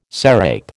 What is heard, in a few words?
"sarape", American